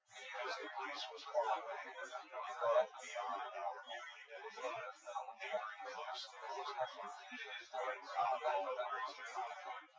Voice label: male; SiSEC08; SiSEC underdetermined